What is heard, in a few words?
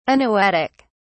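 "anoetic", British English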